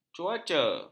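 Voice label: "cuaca", Malay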